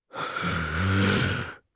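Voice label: Vox Casting, Japanese; Dimael; kingsraid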